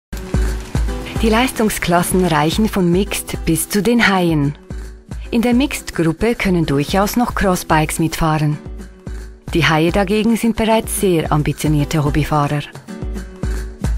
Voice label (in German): internetvideo deutsch mit ch akzent